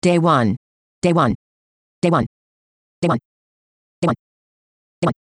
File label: Spearcon speed spectrum example 100 to 600 percent